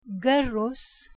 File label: "garus", Doric Greek